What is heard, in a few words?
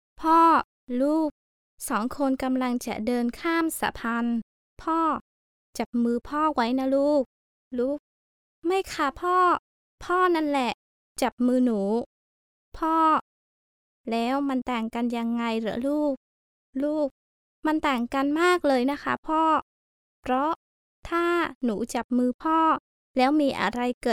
Voice Talent Audiobook